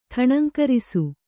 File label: "ಠಣಂಕರಿಸು", Kannada